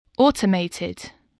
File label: "automated", english